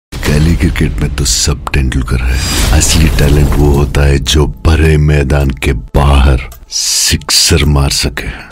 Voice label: tamil dialogue; saaho